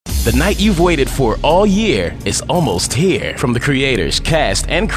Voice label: seduction